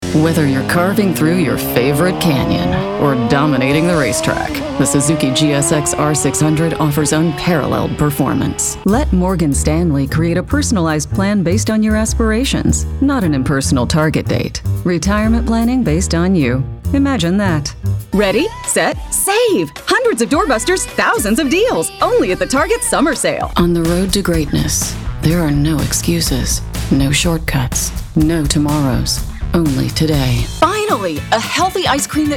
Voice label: Commercial VO